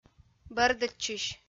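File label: "бӧрдӧдчысь", Komi, female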